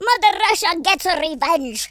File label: revenge, Russki